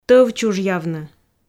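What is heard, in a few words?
"тӧв чужъявны", Komi, female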